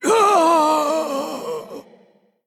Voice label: Male Constellar Death